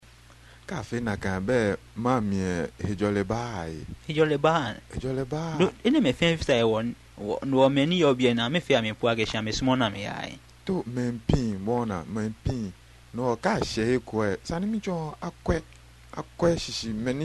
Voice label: conversation, Ga